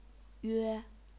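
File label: "yue", chinese pinyin, first tone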